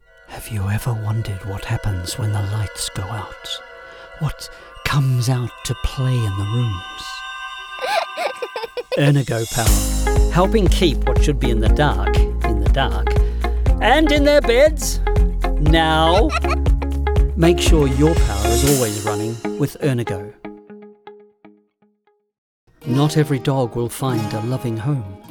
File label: Commercial